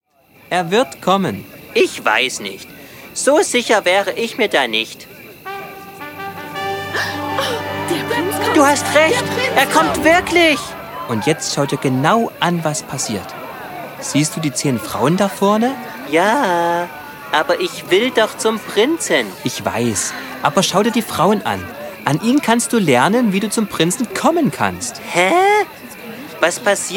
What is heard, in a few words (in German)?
Hoerspiel